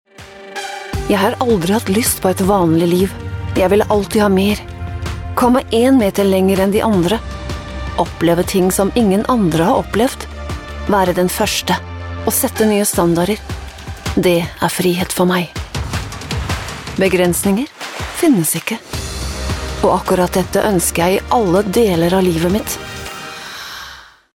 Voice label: Norwegian voice over, Norwegian voice talent; Norwegian female voice overs; locutora noruega

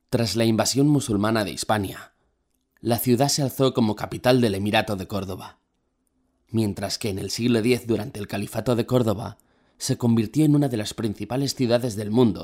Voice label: locutor spanish voiceover

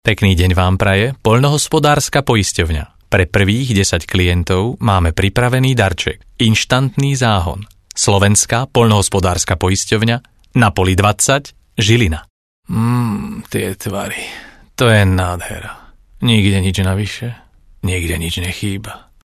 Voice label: locutor eslovaco slovak voiceover

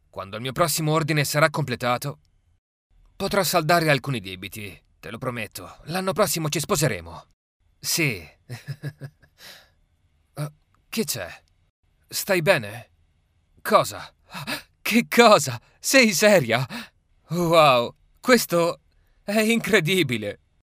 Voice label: locutor italiano italian voiceover

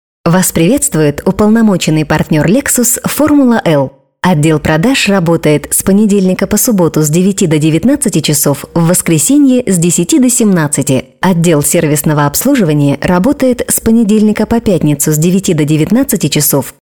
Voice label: russian voiceover, locutora rusa

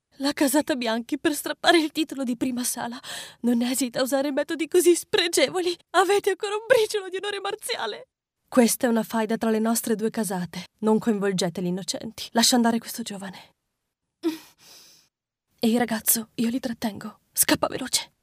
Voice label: locutora italiana italian voiceover; Italian voice artist, locutora italiana de doblaje. Locutor italiano doblaje

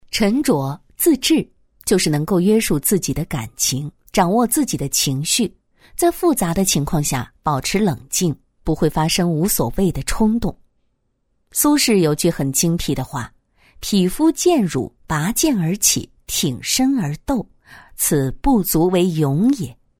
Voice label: chinese female voiceover; locutora china

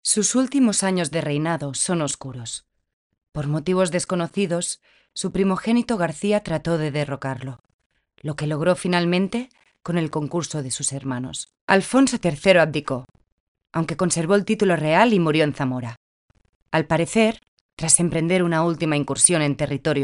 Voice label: locutora espanola spanish voiceover; locutores spain

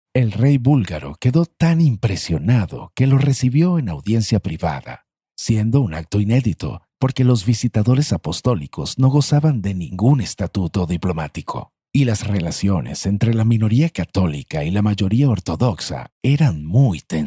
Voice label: locutor espanol neutro latin american voiceover; locutor latino

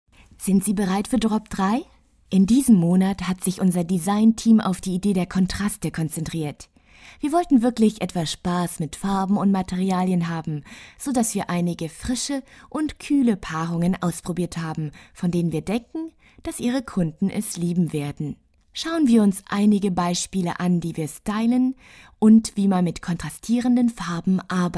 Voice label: german female voiceover locutora alemana

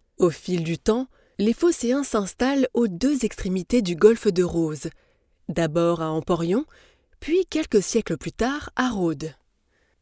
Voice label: french voiceover locutora francesa; locutores franceses

